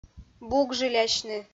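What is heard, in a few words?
"бугжылясьны", Komi, female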